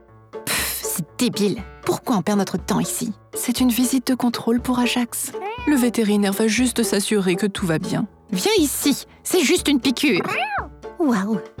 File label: animation enfants music